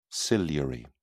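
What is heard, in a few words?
"ciliary", British English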